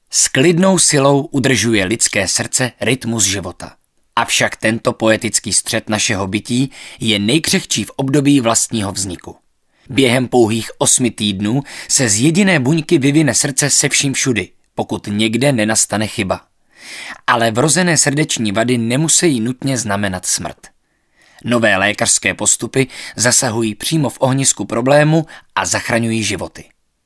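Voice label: male Czech documentary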